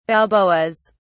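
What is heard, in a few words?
"balboas", British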